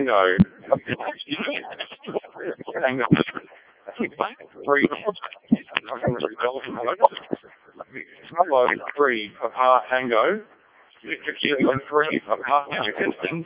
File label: decoded speech, 7177KHz